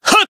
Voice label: attack, jp; claude